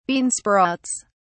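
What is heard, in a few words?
"bean sprouts", English, British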